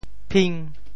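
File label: "phing5", Teochew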